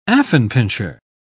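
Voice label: "affenpinscher", English, uk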